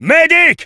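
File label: Engineer medic, French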